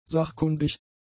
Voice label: "sachkundig", german; adjectives